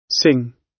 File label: "sing", gb